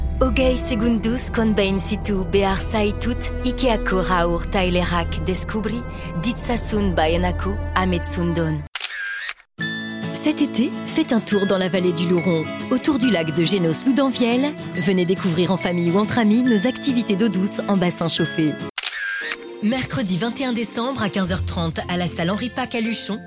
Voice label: FR Fem

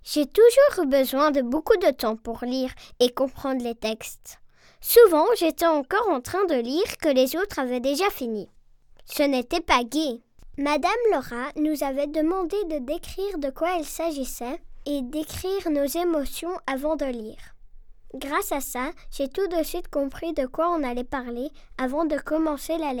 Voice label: FR ENFANT

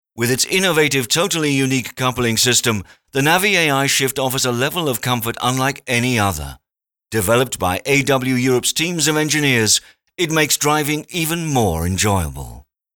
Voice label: Voix anglaise

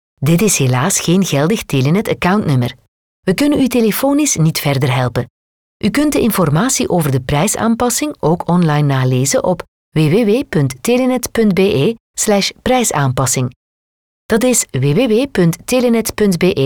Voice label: Voix flamande

